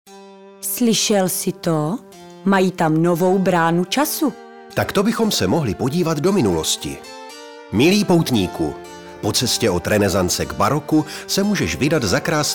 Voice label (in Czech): Reklama; Moravska Trebova